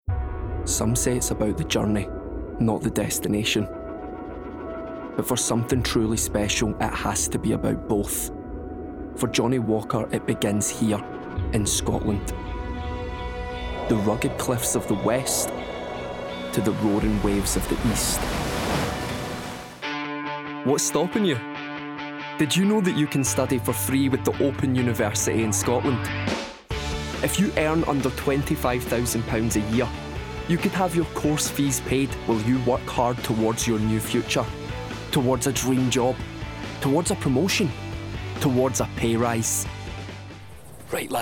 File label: Commercials Montage